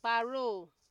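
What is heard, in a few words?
"parole", Nigerian Pidgin, female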